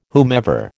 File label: "whomever", American